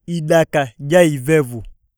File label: malaria, iyasa